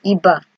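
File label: "iba", Nigerian Pidgin, female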